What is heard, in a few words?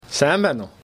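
Mongolian hello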